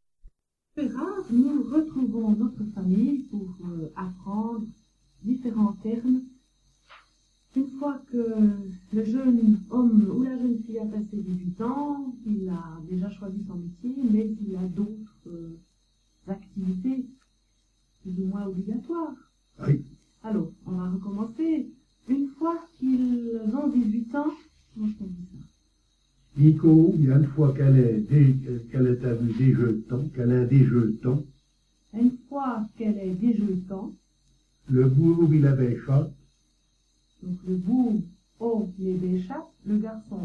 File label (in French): Lecon de patois et interview